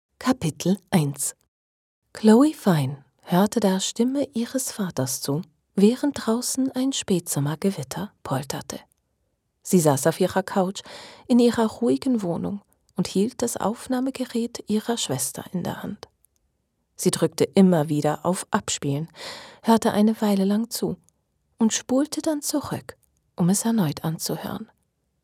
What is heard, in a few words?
german switzerland audiobooks